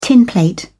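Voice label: "tinplate", British English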